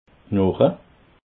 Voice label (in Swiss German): "knoche", alsace